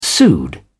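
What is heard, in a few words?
"pseud", British English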